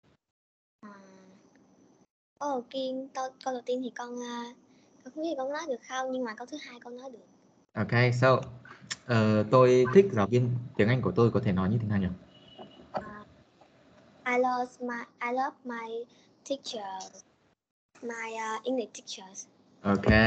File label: vietnamese speech